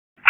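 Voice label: Dispatch, p25; WSO DISP 3; wake p25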